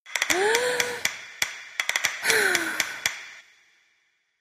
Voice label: woman scatter; games; Corrida Romance; Wazdan